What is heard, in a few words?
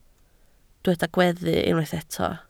"gweddu", Welsh